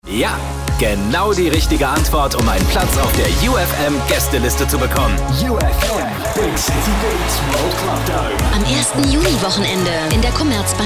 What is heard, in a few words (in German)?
Dynamisch; you fm